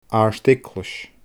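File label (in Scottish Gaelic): "aard eaglais", Scottish Gaelic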